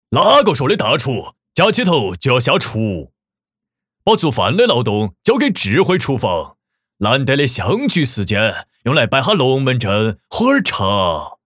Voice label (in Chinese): 男 四川话 中老年; 大厨不下厨